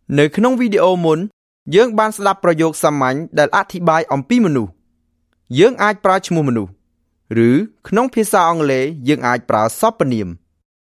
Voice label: Khmer Male